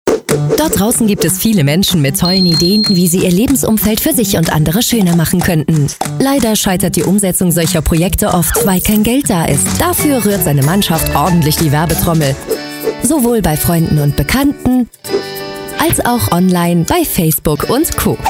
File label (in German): imagefilm